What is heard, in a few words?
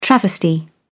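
"travesty", British English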